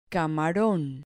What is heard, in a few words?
"camaron", Spanish